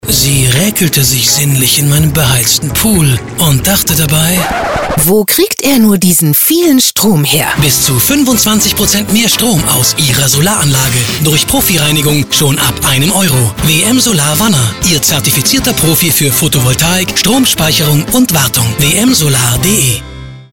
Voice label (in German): radiospot